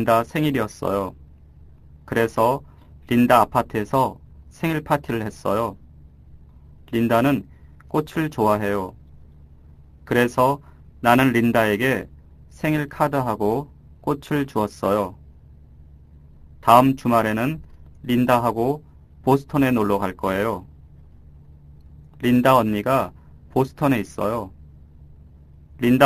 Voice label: Korean Narration; DLI; Lesson 6 - Narration